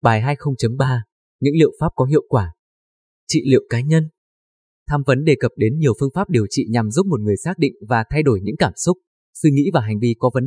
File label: Male